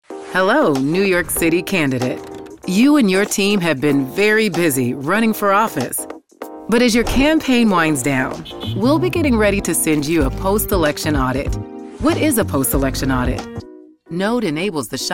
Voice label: Narration